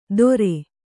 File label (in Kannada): "ತೊರೆ", kannada